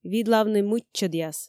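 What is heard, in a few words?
"видлавны мыччӧдъяс", Komi, female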